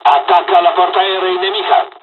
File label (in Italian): bombing, dialogs italian; War Thunder